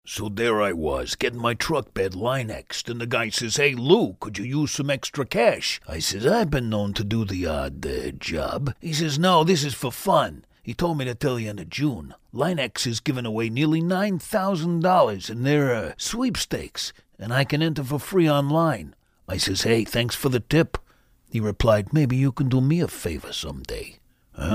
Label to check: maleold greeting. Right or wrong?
Wrong